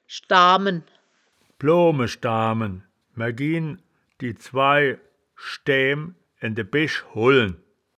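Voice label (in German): "schdaa men", wittlicher woerterbuch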